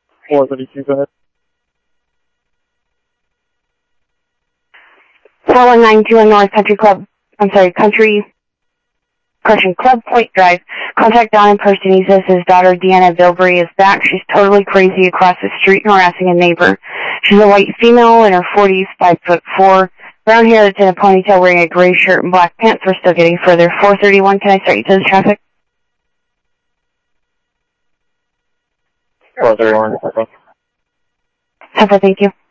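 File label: Sheriff East County, scanner audio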